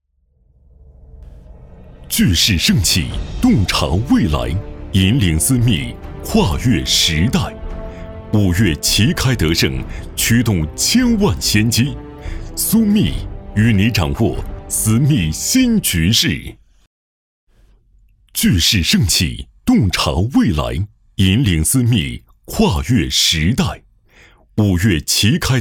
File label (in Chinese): 男,大会开场